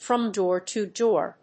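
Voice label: "from door to door", English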